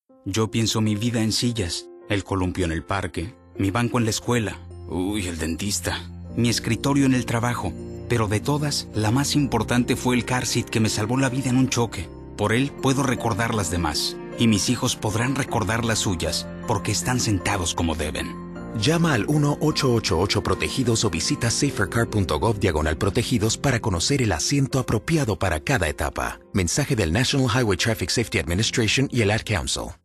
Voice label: Spanish